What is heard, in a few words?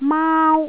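"maau1", Cantonese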